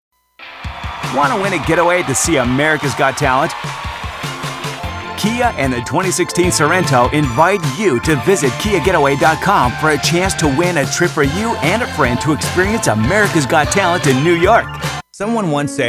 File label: TV Promo